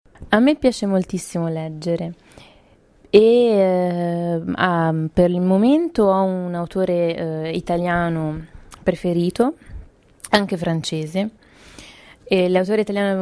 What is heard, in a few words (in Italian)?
lettura